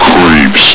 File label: "creeps", hgrunt